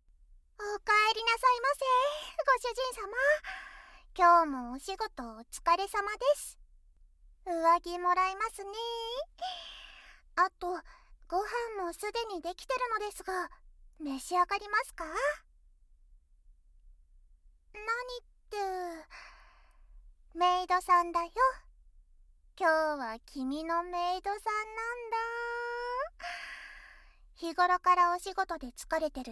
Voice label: メイドさんが癒してあげる, ASMR; SEあり

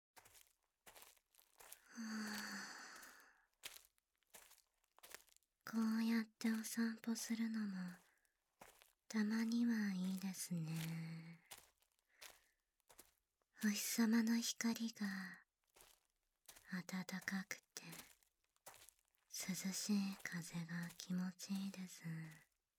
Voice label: 『お顔の"ベロチューフルコース"、なんてどうです？』, ASMR, 環境音無し

